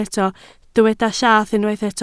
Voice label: "llath", Welsh